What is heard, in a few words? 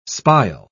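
"spile", British English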